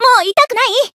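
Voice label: heal, Japanese; myrus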